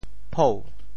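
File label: "phou2", Teochew; 脯; 潮州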